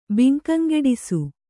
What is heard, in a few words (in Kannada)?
"ಬಿಂಕಂಗೆಡಿಸು", Kannada